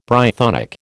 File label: "Brythonic", American